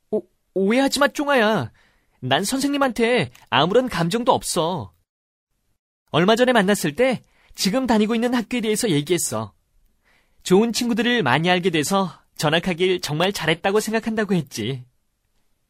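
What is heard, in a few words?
Korean, male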